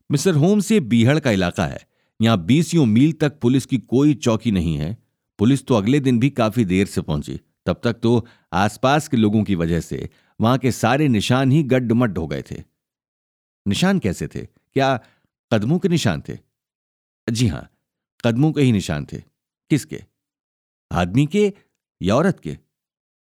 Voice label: Hindi M